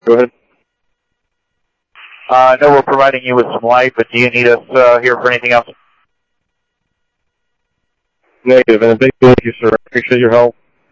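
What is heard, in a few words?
Sheriff Lake Patrol, scanner audio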